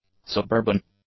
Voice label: "suburban", English; adjectives

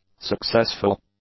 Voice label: "successful", English; adjectives

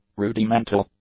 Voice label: "rudimental", English; adjectives

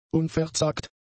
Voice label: "unverzagt", German; adjectives

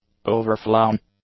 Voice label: "overflown", English; adjectives

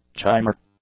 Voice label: "chimer", English; Substantiv; nouns

